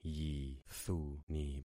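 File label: "yithuni", concatenated syllables; Affixing experiment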